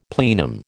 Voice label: "plenum", American